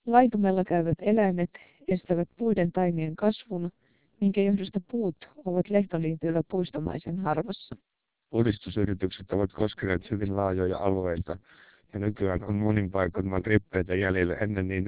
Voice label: 1200 bps TWELP, Finnish